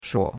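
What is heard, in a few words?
"shuo3", Mandarin Chinese; Cours-de-Chinois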